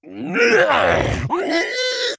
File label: Male Quillboar Attack